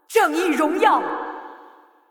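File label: Chinese, assist; Kayle; bloom minigame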